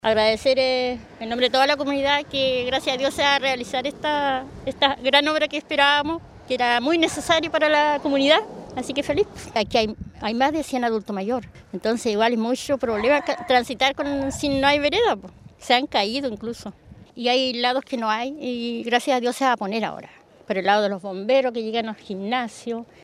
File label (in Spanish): CUÑA; proyecto de reposicion de veredas en sector rural de cancura